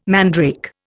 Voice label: "mandrake", Indian